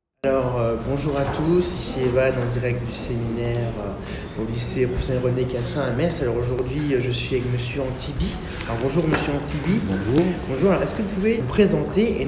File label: Interview